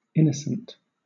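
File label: "innocent", British English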